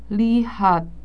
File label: "lihat", indonesian